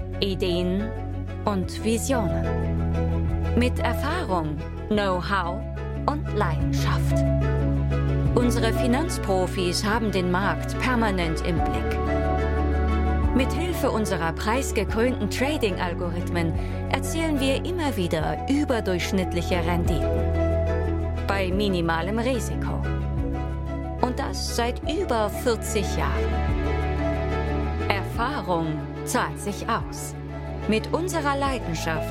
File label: imagefilm german; capital invest